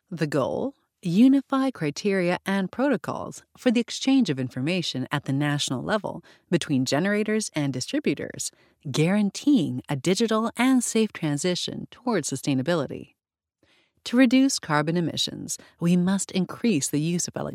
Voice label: english american voiceover locutora inglesa estadosunidos; American voice talent